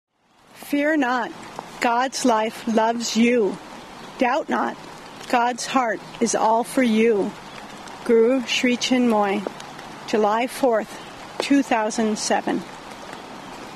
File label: poem